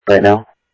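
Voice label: Sheriff West County, scanner audio trunk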